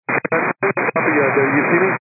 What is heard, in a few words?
122 725MHz, scanner radio